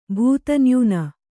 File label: "ಭೂತನ್ಯೂನ", Kannada